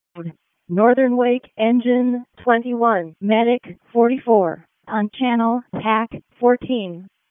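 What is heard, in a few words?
Fire Department Alert, p25; wake p25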